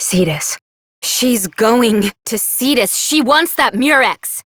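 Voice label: Voice, English; New War; Quarters; Operator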